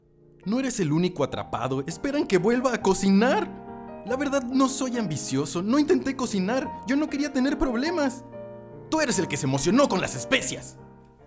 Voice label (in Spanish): actuado; locutor